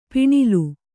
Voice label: "ಪಣ್ಣೆಲೆ" Kannada

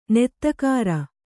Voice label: "ನೆತ್ತಗಾರ", Kannada

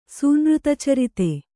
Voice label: "ಸೂನೃತಚರಿತ", Kannada

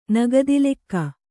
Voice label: "ನಗದಿಲೆಕ್ಕ", Kannada

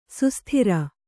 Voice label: "ಸುಸ್ಥಿರ", kannada

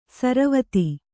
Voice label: "ಸರವತ್ತು", Kannada

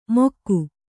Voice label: "ಮೊಕ್ಕು", kannada

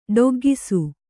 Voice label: "ಡೊಗ್ಗಿಸು", Kannada

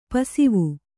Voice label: "ಪಸಿವು", Kannada

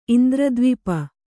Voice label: "ಇಂದ್ರದ್ವಿಪ", kannada

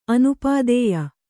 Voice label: "ಅನಪತ್ಯೆ", Kannada

